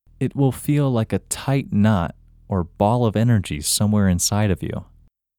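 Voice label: Male, India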